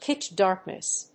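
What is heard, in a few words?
"pitch-darkness", English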